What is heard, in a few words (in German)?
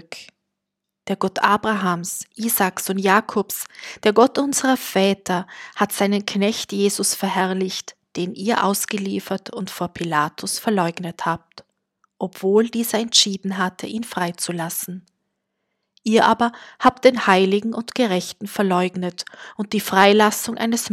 Lesung; oesterliche geschehnisse